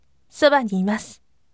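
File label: voice, firm; sobaniiru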